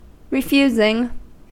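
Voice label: "refusing", American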